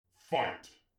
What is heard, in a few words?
"fight", announcer; instagib; blocks and bullets